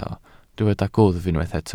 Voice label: "gwddf", Welsh

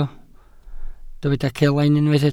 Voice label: "celain", Welsh

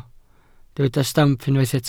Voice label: "stamp", welsh

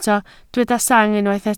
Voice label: "sang", Welsh

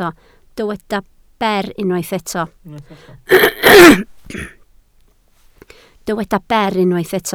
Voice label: "ber", Welsh

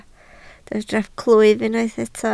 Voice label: "clwyf", Welsh

